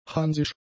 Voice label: "hansisch", German; adjectives

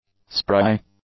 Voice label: "spry", english; adjectives

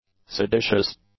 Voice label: "seditious", English; adjectives

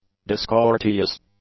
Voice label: "discourteous", English; adjectives

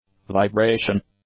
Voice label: "vibration", English; nouns

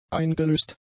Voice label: "eingelöst", German; adjectives

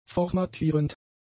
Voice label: "formatierend", German; adjectives

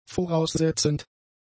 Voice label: "voraussetzend", German; adjectives

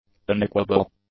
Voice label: "unequable", english; adjectives

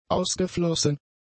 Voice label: "ausgeflossen", German; adjectives

